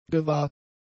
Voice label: "gewahr", German; adjectives

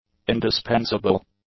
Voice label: "indispensable", English; adjectives

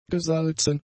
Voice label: "gesalzen", German; adjectives

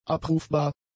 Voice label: "abrufbar", German; adjectives

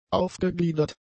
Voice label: "aufgegliedert", German; adjectives

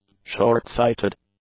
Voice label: "short-sighted", English; adjectives

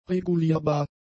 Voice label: "regulierbar", German; adjectives

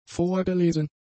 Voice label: "vorgelesen", German; adjectives

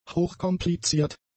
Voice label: "hochkompliziert", German; adjectives

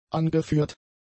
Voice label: "angeführt", German; adjectives

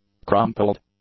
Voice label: "crumpled", English; adjectives

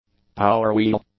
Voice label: "power-wheel", English; nouns

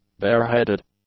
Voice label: "bare-headed", English; adjectives

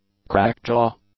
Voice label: "crackjaw", English; adjectives

